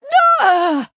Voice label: Female Troll Death